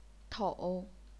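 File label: "tou", chinese pinyin, third tone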